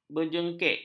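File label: "berjengkek", Malay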